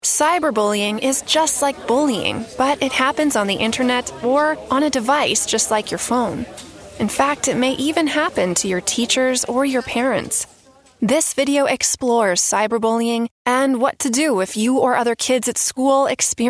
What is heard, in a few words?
Warm Empathetic Teen Course on Cyberbullying